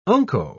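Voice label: "unco", British English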